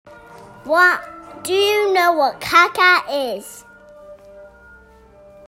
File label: voice message